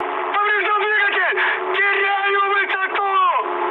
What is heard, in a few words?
damaged engine, mood high, speech ru; War Thunder